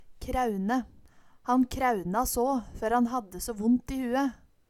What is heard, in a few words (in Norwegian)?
kraeune, Numedalsmål